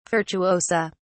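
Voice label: "virtuosa", English, British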